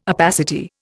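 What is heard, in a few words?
"opacity", Indian English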